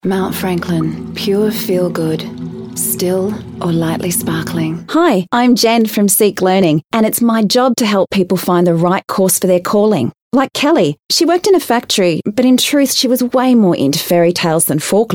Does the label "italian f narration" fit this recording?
No